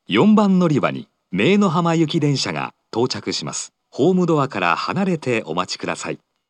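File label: approaching Meinohama, station announcement, Fukuoka city subway; 接近-姪浜行; 福岡市地下鉄 空港線・箱崎線 中洲川端駅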